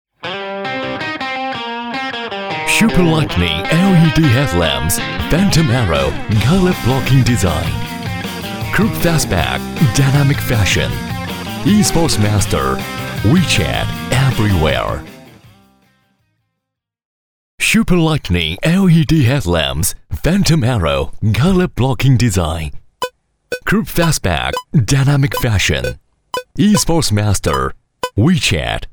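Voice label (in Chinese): 男 英文, 广告; 广汽传奇TVC; 传祺GS4coupe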